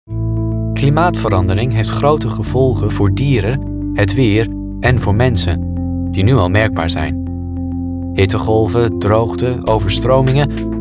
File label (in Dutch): vr corporate dutch